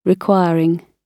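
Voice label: "requiring", British English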